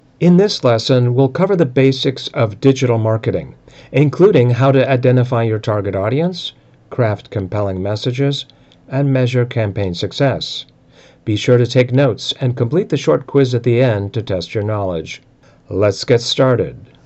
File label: E-Learning Instructional Courses, voice over narration